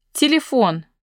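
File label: "phone", Russian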